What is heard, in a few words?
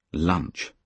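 "lunch", British English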